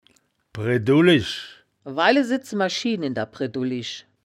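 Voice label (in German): "bredullisch", wittlicher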